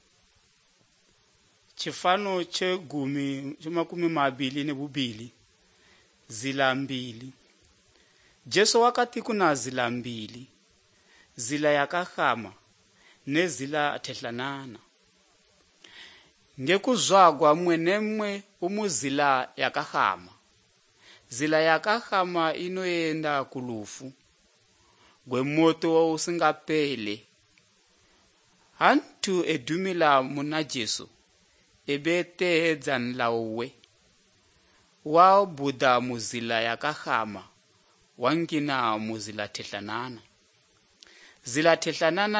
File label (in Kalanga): good news kalanga lilima, drama